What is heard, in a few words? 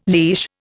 "leash", Indian